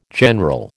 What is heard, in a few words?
"general", American